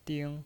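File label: "ding" Mandarin Chinese, first tone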